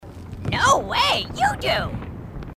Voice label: grunt crazy; h2dialogue